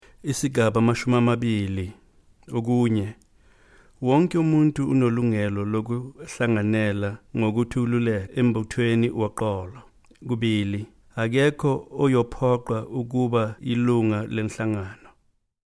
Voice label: Zulu